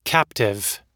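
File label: "captive", US English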